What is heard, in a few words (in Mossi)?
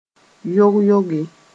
"yog-yoge", moore